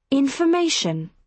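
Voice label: "information", British English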